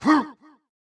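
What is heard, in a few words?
soldier voice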